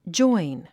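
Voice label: "join", English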